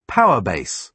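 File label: "power base", British English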